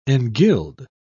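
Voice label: "engild", British English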